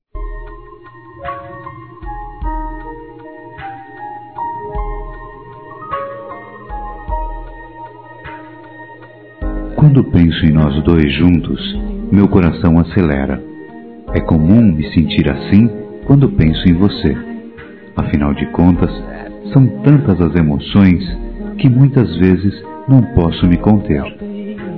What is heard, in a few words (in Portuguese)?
Masculino, Telemensagens; Dia Dos namorados; Telemensagens 2017